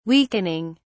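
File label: "weakening", British English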